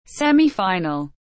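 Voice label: "semi-final", English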